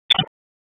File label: Police Northwest District Tac 1; wake; p25